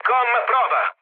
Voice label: chat, speech it; War Thunder

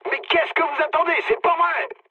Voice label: attack position mood low, speech fr; War Thunder

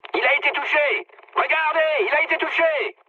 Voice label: aircraft destroyed mood med, speech fr

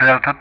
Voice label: "Delta", ENG; Ka-50; DCS

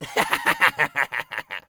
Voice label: scav mutter, voice; Escape from Tarkov; scav2

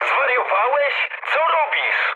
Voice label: pol player killed ally mood low, speech pol; War Thunder

